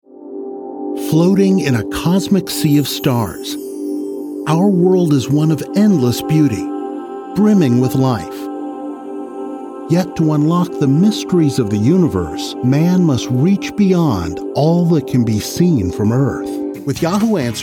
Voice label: narration